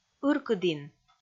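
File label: "ыркыдін", Komi, female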